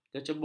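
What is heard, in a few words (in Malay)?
"kecubung", Malay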